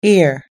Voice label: "ear", us english